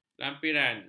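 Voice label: "lampiran", Malay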